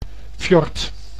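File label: "fjord", Dutch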